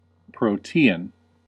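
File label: "protean", US English; pronunciations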